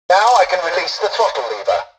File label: tutorial dialogue, english; War Thunder